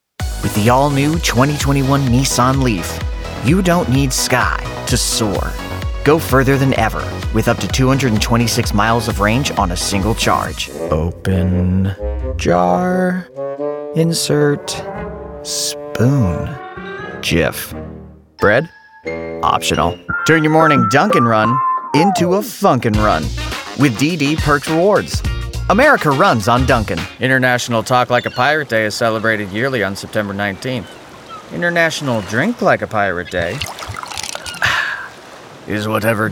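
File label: Commercial Demo, Male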